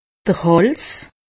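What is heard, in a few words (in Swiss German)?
"hals", Haut Rhin; medecine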